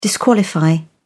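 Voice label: "disqualify", British English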